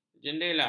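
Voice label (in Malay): "jendela", Malay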